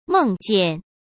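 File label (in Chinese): "梦见", Mandarin Chinese; hsk; chinese-flashcards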